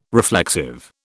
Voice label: "reflexive", British

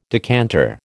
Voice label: "decanter", American

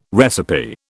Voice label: "recipe", British

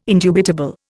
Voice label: "indubitable", Indian

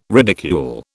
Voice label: "ridicule", British

